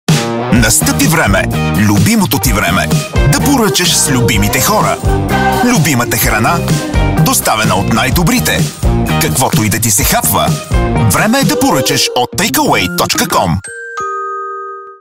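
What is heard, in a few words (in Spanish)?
comercial, Bulgarian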